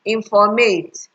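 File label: "informate", Nigerian Pidgin, female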